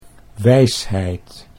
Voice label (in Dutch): "wijsheid", Dutch